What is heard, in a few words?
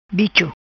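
"Bicho", patua; Qualquer verme ou insecto; Pessoa, tipo, sujeito; Person, fellow, individual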